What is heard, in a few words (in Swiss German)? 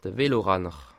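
"veloranner", Bas Rhin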